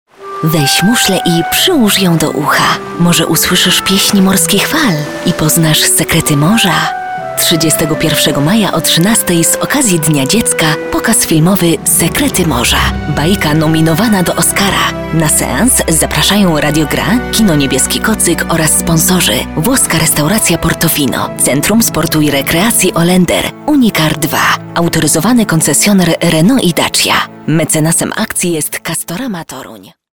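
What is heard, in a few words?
PL F commercial, Polish, female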